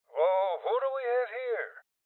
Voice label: Voices Enemy Spotted Male Old; rebel cops 2019

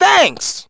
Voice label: "Thanks!", announcer; Dance Dance Revolution Extreme; PS2; Music Scroll

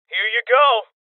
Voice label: Voices Throw Clip Male Young; rebel cops 2019

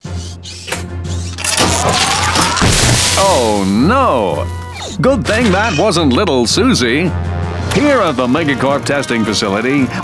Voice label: Commercial; Megacorp Products; Ratchet & Clank Going Commando

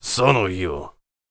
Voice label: warrior conversation emotion question

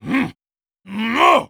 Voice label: VO light slash

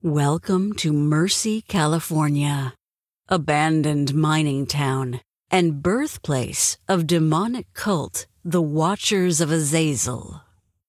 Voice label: Opening Narration; The Town

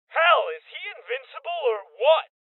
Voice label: Heavy Hit, Male Young; Rebel Cops

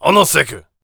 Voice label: warrior conversation emotion angry

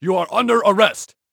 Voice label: Emergency Police Male You Are Under Arrest

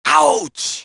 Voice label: Ouch, announcer; Dance Dance Revolution Extreme; PS2; D grade

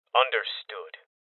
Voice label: Voices Confirmation Male Young

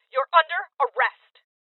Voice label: Order To Surrender Female, Voices

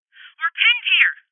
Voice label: Voices HeavyLosses Female

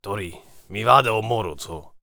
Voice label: warrior conversation emotion neutral

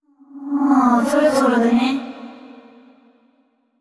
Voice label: VO thinking; yamiko

